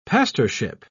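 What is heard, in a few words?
"pastorship", British English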